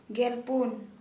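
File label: fourteen, Santali, female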